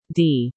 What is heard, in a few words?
"D", female